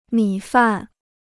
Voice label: "米饭", Chinese

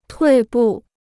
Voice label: "退步", Chinese

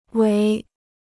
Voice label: "围", Chinese; wéi; to encircle; to surround

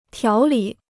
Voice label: "条理", Chinese

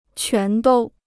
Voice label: "全都", Chinese

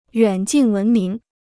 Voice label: "远近闻名", Chinese

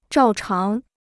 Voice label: "照常", Chinese

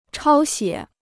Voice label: "抄写", Chinese

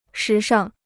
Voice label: "时尚", Chinese

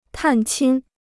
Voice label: "探亲", Chinese

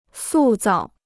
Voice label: "塑造", Chinese

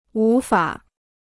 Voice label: "无法", Chinese

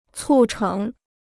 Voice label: "促成", Chinese

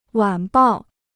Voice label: "晚报", Chinese

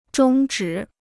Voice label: "中止", Chinese

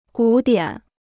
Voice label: "古典", Chinese

